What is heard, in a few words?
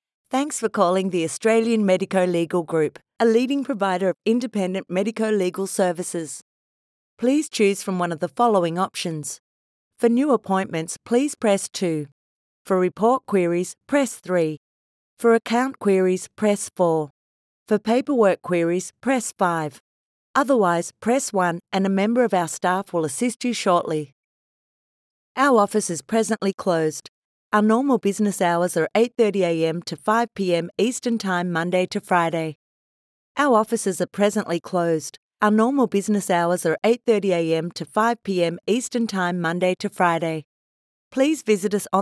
Phone Messages